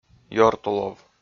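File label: "ёртулов", Komi, male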